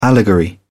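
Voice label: "allegory", British English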